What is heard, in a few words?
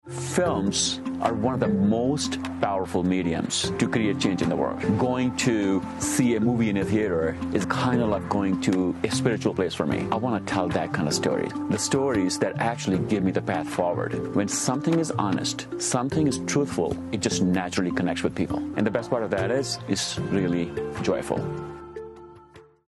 VO Indian